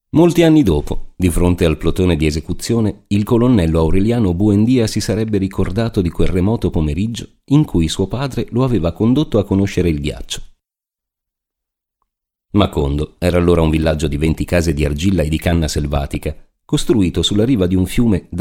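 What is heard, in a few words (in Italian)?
audiolibri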